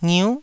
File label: "ngiu9", taiwanese syllables